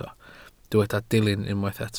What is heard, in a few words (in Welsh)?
"dilyn" Welsh